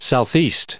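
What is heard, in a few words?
"southeast", US English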